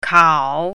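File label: "kao3", Mandarin Chinese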